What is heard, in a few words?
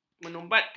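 "menyumbatkan", Malay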